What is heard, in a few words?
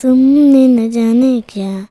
CHILD VX, Indian Traditions